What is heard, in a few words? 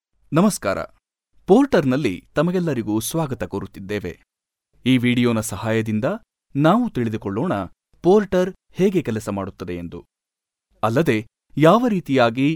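Kannada India male voice over, narrative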